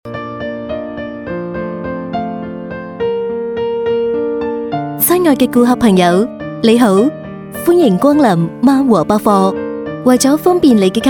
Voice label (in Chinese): 粤女 促销; 万和百货